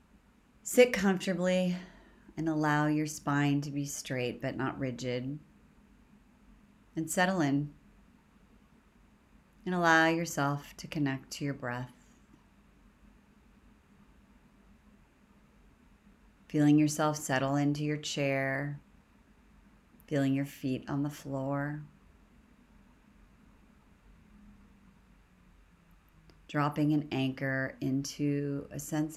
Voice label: A Receiving Meditation; mindfulness training session